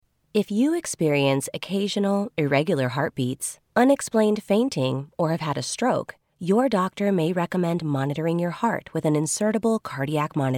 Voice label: Medical Device Narration Female English Caring Friendly Upbeat